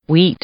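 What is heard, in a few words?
"wheat", English, female; noun; i-ɪ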